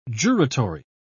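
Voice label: "juratory", British English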